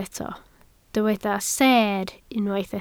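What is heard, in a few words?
"seer" Welsh, spoken word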